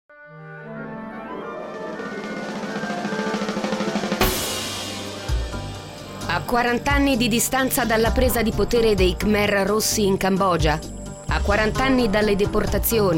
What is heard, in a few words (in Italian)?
Promo Radio